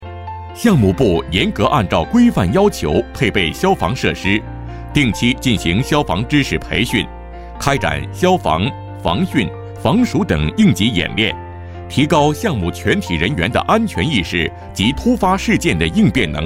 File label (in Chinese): 讲解